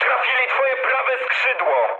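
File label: Polish right wing mood medium; War Thunder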